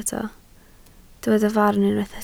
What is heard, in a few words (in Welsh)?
"farn" Welsh